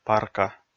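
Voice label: "парка", Komi, male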